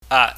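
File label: "aat", Cantonese, mid level tone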